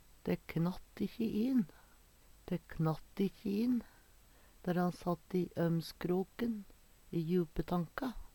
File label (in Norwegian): "Dæ knatt ikkje i n", Numedalsmål